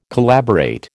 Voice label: "collaborate", American

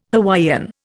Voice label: "Hawaiian", Indian

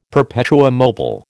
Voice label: "perpetuum mobile", American

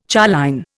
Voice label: "choline", Indian

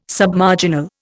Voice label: "Submarginal", Indian

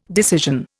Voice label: "decision", Indian

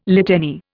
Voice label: "litany", Indian

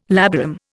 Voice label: "labarum", Indian

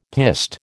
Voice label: "piste", American

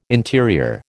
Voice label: "interior", American

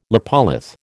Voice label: "lopolith", American

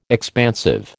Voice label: "expansive", American

